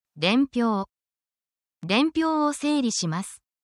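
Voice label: Japanese word, essential Japanese phrases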